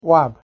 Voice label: "wab", Scots